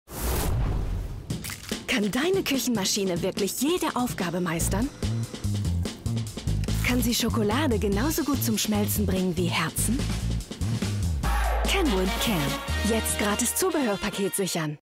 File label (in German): DE TVC; Kenwood